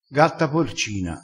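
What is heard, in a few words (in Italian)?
"gatta porcina", vocabolario dialettale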